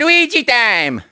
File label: luigi luigi time; mario kart wii